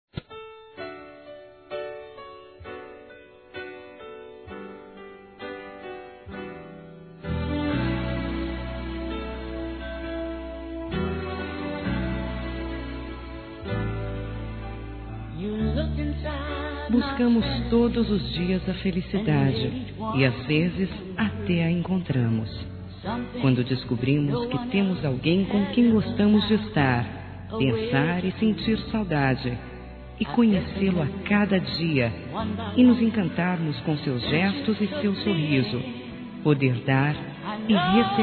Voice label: ROMANTICO SUAVE F; Telemensagens; Românticas

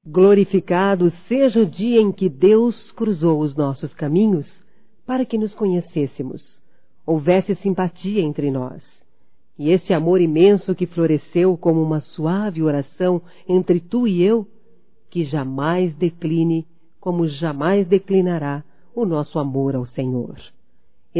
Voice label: Aniversário Feminino, Telemensagens; Aniversário Namorado; Telemensagens 2017; Aniversarios

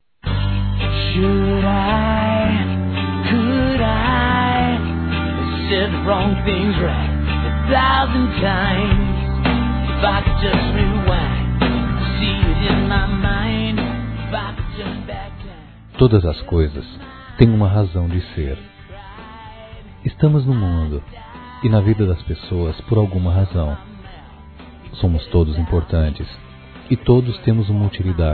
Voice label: GERAL M; Telemensagens 2017

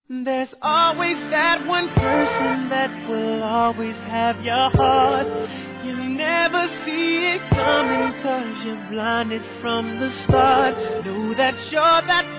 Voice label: NEUTRO PLURAL F; Aniversários; Aniversários diversos; fem

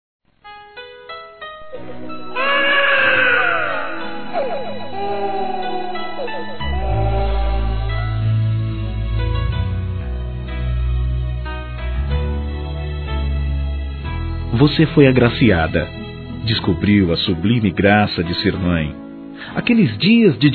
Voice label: Parabéns Mamãe Masculino; Telemensagens; Felicitações; Telemensagens 2017

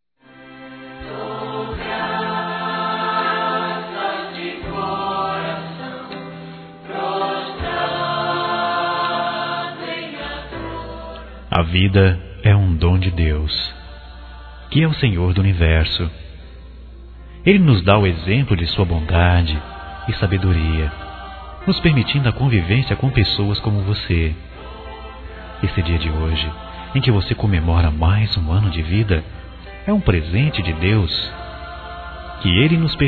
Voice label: ANIV. EVANG. GERAL, Telemensagens Gospel masc

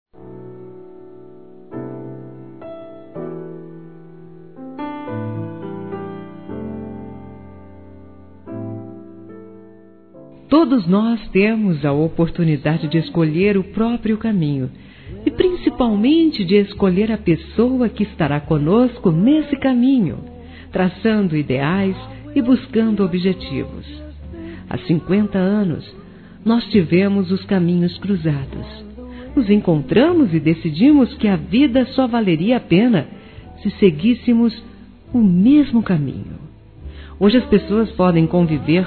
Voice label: Bodas de Ouro Romântica Feminino; Telemensagens; Telemensagens 2017

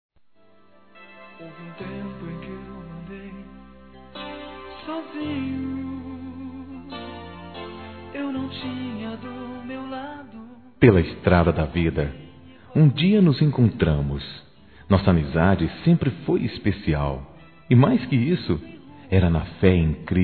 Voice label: SAUDADES GERAL M, Telemensagens Gospel masc

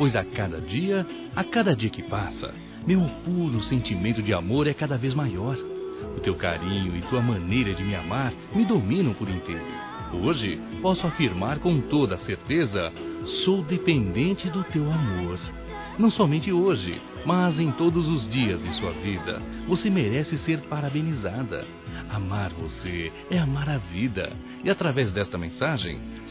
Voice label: ANIV. ROMANTICO M

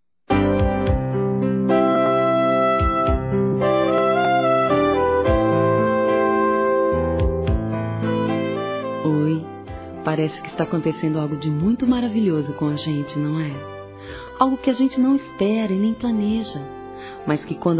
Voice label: pedido de namoro, fem; Telemensagens; Pedidos

